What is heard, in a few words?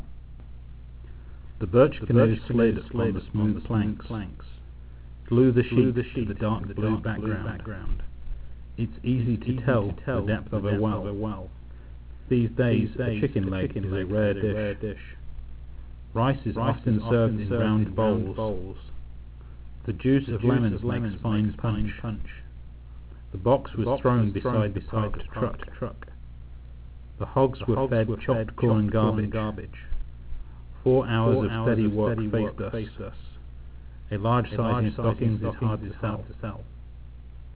echo